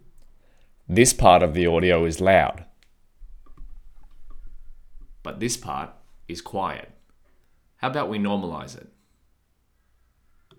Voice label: normalized loud quiet